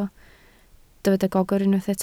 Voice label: "gogr", Welsh